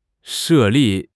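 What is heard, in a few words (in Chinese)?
"设立", Chinese; HSK6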